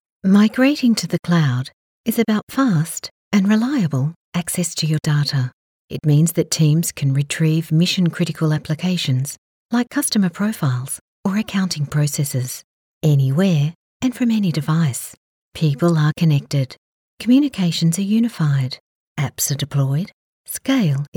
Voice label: Corporate